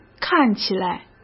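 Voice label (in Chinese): "看起来", Mandarin Chinese; chinese-flashcards; hsk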